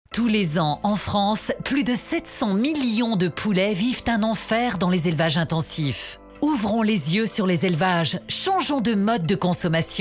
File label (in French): spot